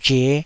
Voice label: "zhe", Taiwanese